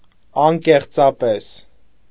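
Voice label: "անկեղծապես", Armenian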